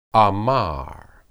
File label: "amar", Hebrew